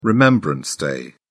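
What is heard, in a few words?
"remembrance day", British English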